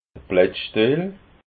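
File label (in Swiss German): "blattstiel", Alsatian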